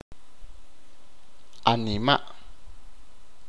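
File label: corazón, achi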